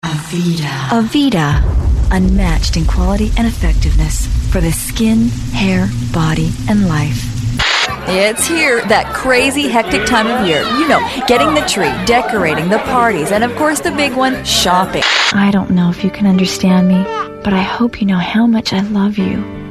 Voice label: commercial with bg, female voice overs